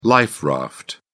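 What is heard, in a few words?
"life raft", British English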